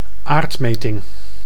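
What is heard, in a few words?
"aardmeting", Dutch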